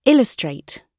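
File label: "illustrate", British English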